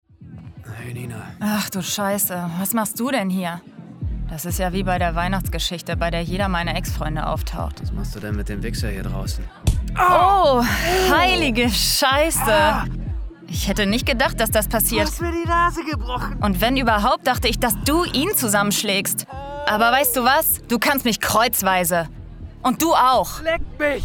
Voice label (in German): Synchro